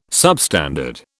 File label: "substandard", British